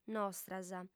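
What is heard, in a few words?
"nostras", female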